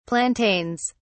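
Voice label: "plantains", British English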